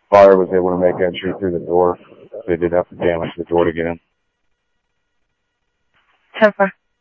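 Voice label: Sheriff East County, scanner audio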